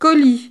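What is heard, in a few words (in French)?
"colis", French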